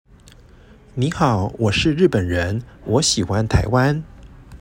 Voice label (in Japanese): 台湾華語; 台湾華語と台湾語の違い